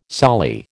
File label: "soli", American